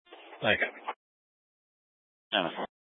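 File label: Sheriff Dispatch, Scanner audio recording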